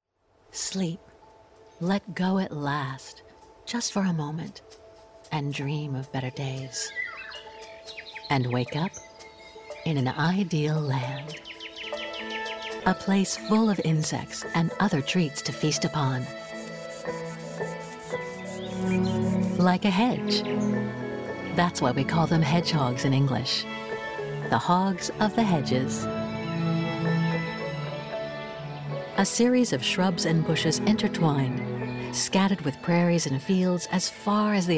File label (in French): documentaire; The Epic Adventure of a Hedgehog